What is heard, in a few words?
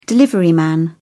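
"deliveryman", British English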